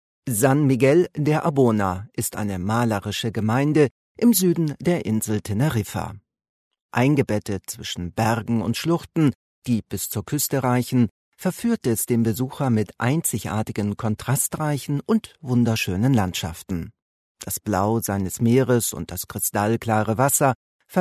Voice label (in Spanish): locutor aleman documental